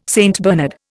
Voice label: "St Bernard", Indian

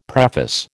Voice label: "preface", American

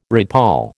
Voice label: "redpoll", American

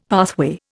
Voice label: "pathway", Indian; Dictionary

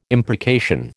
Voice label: "imprecation", American

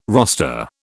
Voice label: "roster", British

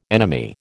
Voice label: "enemy", American

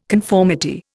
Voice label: "conformity", Indian

